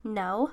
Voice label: "No" With Attitude